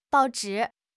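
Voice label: "bao4 zhi3", Chinese; HSK; HSK2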